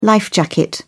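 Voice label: "life jacket", British English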